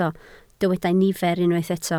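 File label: "nifer" Welsh, spoken word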